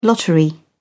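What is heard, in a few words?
"lottery", British English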